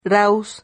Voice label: "raus", Silabas

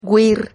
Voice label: "güir", Silabas

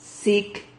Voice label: "cic", Silabas

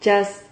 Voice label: "chas", Silabas

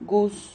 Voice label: "guz", Silabas

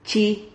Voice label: "chi", Silabas

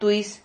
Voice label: "tuis", Silabas

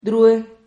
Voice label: "drue", Silabas

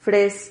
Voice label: "fres", Silabas

